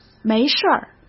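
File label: "没事儿", Mandarin Chinese; chinese-flashcards; hsk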